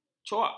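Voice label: "cuak", Malay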